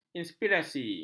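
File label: "inspirasi", Malay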